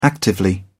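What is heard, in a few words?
"actively", English, British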